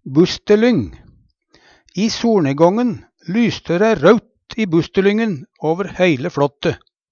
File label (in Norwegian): "bustelyng", Numedalsmål